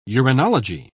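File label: "uranology", British English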